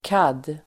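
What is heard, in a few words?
"CAD", Swedish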